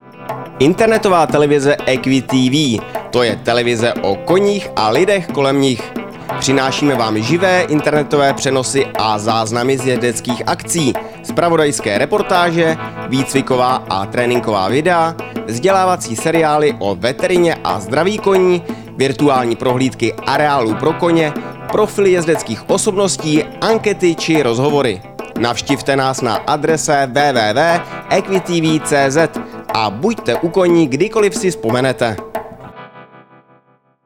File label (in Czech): zvuk spot; EquiTV